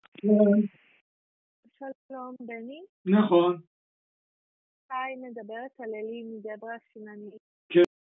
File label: call recording